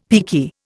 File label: "peaky", Indian; Dictionary